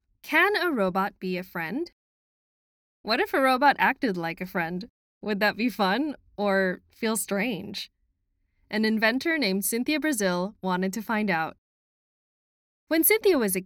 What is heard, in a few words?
english american audiobooks